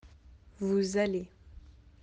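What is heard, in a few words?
"aller", French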